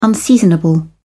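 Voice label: "unseasonable", British English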